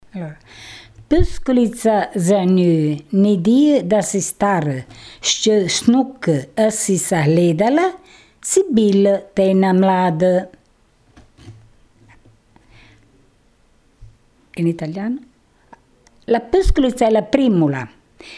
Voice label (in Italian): FILASTROCCA